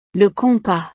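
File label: "le compas", French; utiles escolares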